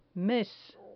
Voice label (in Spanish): "mes", Spanish